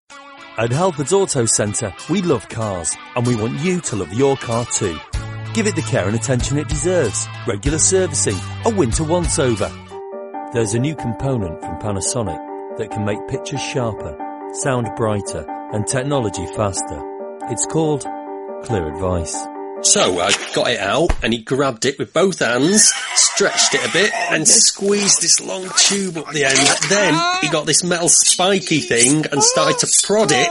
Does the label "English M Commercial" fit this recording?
Yes